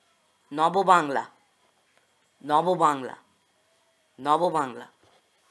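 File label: Nobo Bangla Pronunciation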